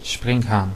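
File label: grasshopper, Dutch; animals; insects; tuxpaint stamps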